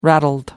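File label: "rattled", English, British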